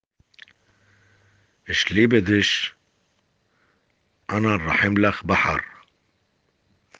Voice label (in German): liebe aramaeisch